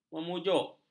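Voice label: "memujuk", Malay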